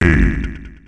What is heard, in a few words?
"eight", announcer male; Unreal Tournament; ut2004